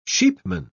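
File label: "sheepman", British English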